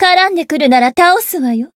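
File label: Voice encounter, Japanese; toova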